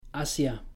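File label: "hacia", Spanish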